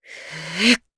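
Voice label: Vox Casting Japanese; Hilda; kingsraid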